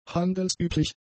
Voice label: "handelsüblich", German; adjectives

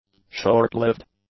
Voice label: "short-lived", English; adjectives

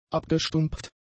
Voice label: "abgestumpft", German; adjectives

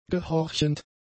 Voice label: "gehorchend", German; adjectives

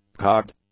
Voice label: "cogged", English; adjectives

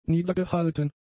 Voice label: "niedergehalten", German; adjectives

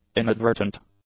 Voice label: "inadvertent", English

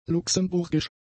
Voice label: "luxemburgisch", German; adjectives

